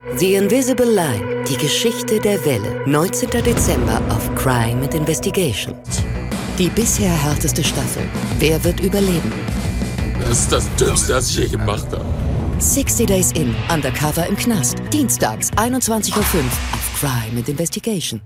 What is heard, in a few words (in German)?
Trailer, frauen; sprecher